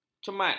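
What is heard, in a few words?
"cemat", Malay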